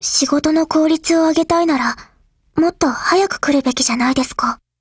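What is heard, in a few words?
Thunder50 HELLO Japanese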